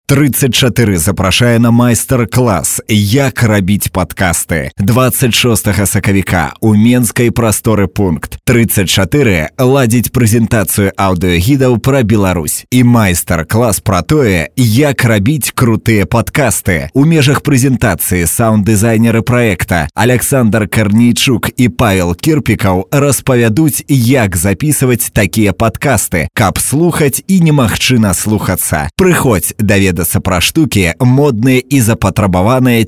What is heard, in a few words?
Belarusian male